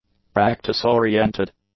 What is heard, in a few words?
"practice-oriented", english; adjectives